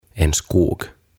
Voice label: "En Skog", "Metsä"

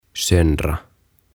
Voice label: "Söndra" "Rikkoa"

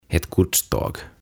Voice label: "Ett godståg" "Tavarajuna"; OTAVA KONSERNI

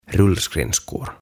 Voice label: "Rullskridskor" "Rullaluistimet"

